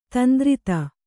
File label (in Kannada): "ದಂತುರಿತ", Kannada